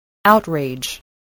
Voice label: "outrage", English voice

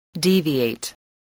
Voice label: "deviate", english spelling

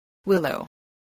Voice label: "willow", voice, english

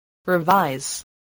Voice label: "revise", English

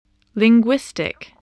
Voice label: "linguistic", spelling

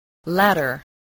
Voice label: "ladder", English

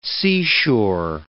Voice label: "seashore", English

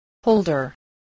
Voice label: "holder", English, spelling

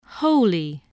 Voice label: "wholly", voice, english

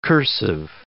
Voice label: "cursive", english

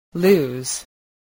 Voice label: "lose", voice

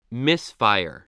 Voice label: "misfire", voice, spelling

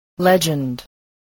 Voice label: "legend", spelling

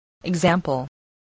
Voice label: "example", voice, english

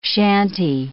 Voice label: "chantey", English

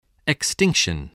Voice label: "extinction", voice

